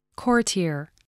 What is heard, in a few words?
"courtier", voice spelling